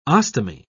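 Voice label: "ostomy", British English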